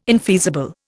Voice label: "infeasible", Indian